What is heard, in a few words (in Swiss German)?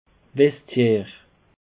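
"vestiaire", Alsatian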